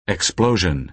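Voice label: "explosion", American English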